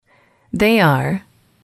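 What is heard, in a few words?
"they are", English, female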